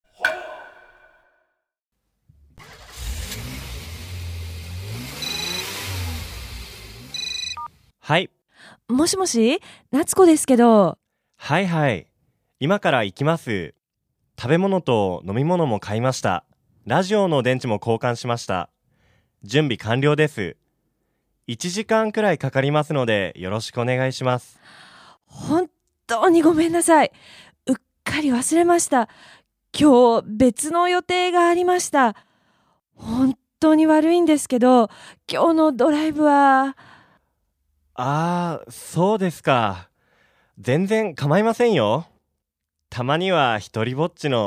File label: dialog; JapanesePod101; Beginner Season 1